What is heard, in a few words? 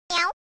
meowwwwww